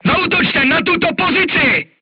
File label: voice message art command oneself, crew tanks czech